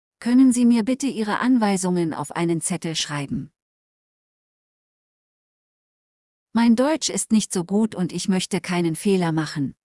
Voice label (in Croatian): pedijatar deutsch